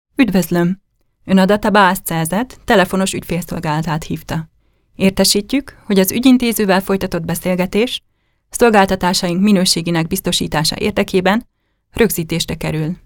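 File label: Hungarian, female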